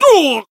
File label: damaged jp